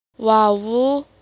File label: "wawu", hijaiyah; cth materi